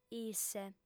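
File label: "isse", female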